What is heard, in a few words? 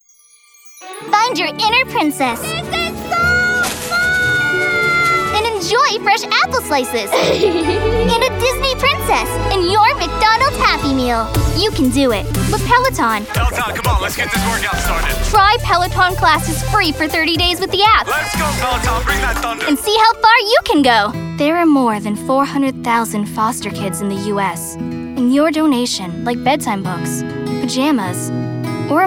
Commercial